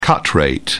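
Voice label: "cut rate", British English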